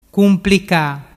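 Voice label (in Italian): "cumplica", Vernazzese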